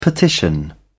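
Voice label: "petition", English, British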